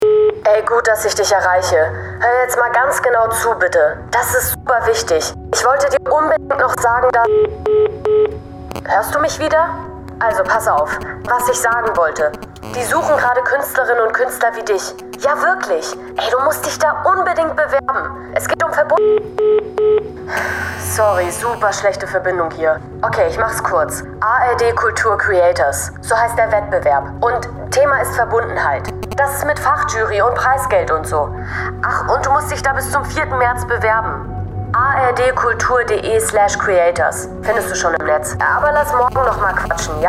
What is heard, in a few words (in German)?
radiotrailer